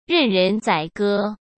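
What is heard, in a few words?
"任人宰割", Mandarin Chinese; chinese flashcards; hsk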